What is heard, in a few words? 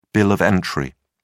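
"bill of entry", English, uk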